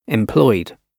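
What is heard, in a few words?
"employed", British English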